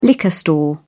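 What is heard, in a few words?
"liquor store", British English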